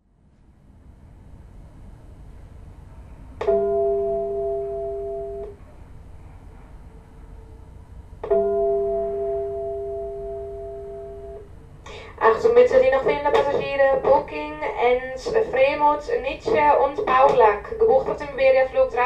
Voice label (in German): Flughafen Ansagen dringend zum Ausgang